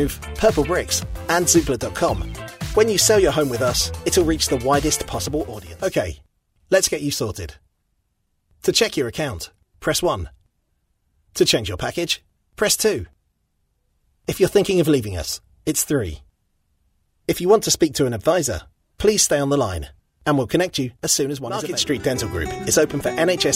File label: on hold